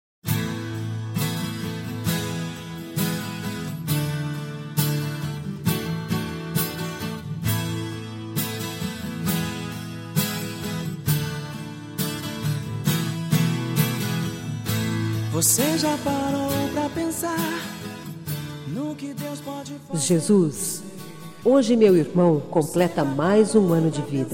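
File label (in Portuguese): Evangélica Aniversário de Irmao, female, telemensagens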